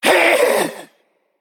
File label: Male Naga Death